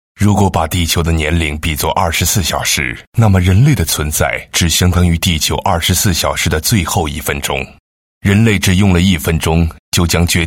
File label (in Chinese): 男 广告 能源, 国语男声配音; 珍爱能源